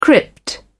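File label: "crypt", British English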